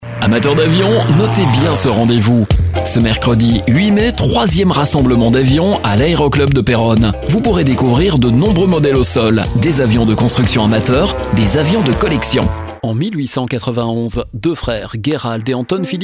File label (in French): VOIX OFF